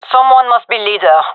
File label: request leader, gendarmerie fem; vo